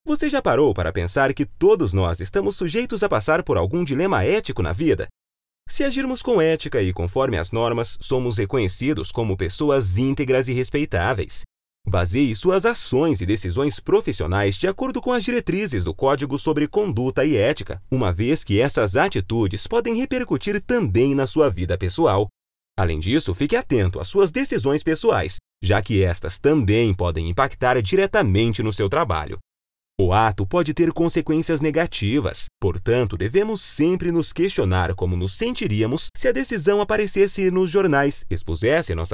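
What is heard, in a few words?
Brazilian Portuguese, male, narration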